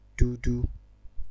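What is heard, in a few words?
"dudu", Nigerian Pidgin, male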